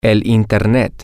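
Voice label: internet, Spanish, male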